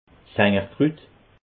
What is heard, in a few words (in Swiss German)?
"sangerkrut", alsace